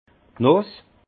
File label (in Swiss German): "nuss", alsace; vin; noix